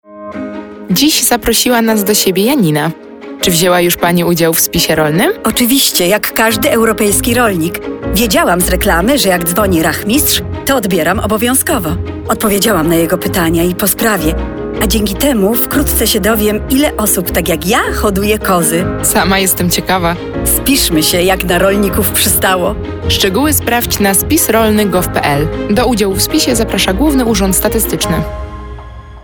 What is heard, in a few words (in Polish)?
spot radiowy; dzwoni rachmistrz